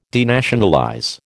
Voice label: "denationalize", American